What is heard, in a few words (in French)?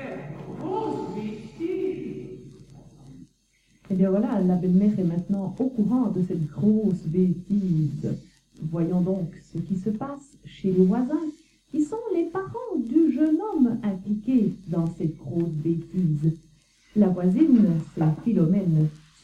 Theatre patois suite